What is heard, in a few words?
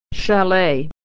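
vowel ey "chalet", pronunciation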